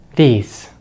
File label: "these", English